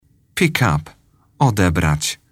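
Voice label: "pick up", English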